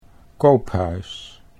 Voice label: "Koophuis", Dutch

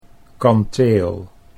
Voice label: "kanteel", Dutch

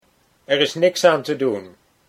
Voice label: er is niks aan te, Dutch

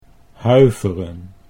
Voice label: "huiveren", Dutch

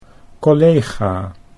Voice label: "collega", Dutch

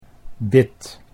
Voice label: "bid", Dutch

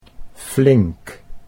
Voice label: "flink", Dutch

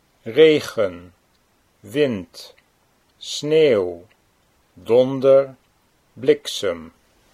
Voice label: "regen wind", Dutch

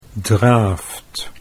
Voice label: "draaft", Dutch

